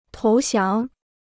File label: "投降", Chinese